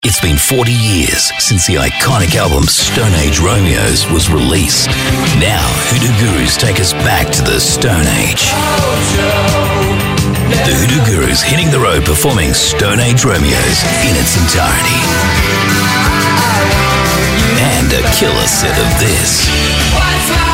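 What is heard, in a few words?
AU English Concert, Australian English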